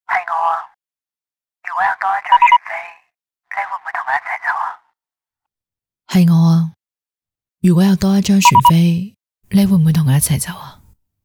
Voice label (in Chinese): 粤语旁白独白; 船票